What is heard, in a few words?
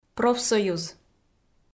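"профсоюз", Komi, female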